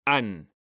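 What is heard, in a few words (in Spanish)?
"han", Spanish, male; mekanta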